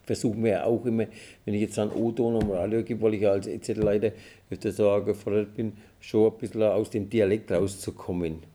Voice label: bamberg interview